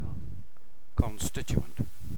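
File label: "constituent", British English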